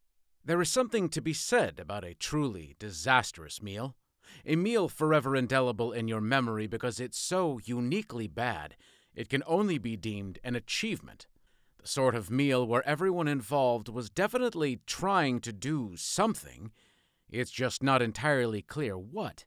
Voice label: Male, First Person, Humor; audiobook narrator